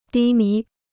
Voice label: "低迷", Chinese

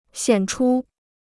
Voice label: "显出", Chinese

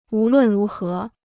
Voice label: "无论如何", Chinese

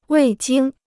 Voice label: "未经", Chinese

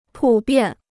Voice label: "普遍", Chinese

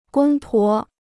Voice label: "公婆", Chinese

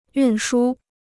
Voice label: "运输", Chinese

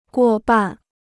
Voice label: "过半", Chinese

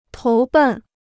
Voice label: "投奔", Chinese

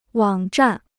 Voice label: "网站", Chinese

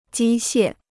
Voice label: "机械", Chinese

